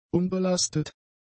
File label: "unbelastet", German; adjectives